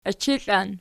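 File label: "ichiklan" nominative, Archi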